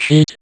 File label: Vocoder Words; Vengeance Samples